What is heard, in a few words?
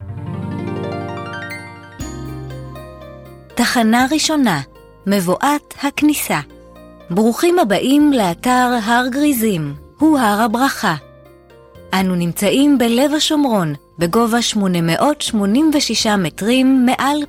hebrew mix